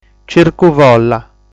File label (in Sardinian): "Circu Folla", sardu